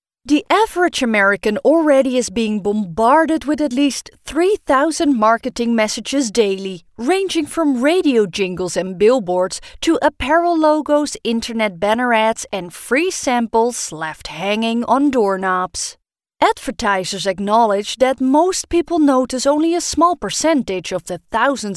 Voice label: Narrative European